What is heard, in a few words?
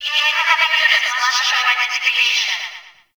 Campsite Announcement; Warframe; Tau